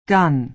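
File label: "gun", American English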